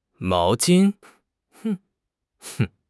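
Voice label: "mao2 jin1", Chinese; HSK4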